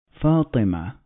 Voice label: "Fatima", Arabic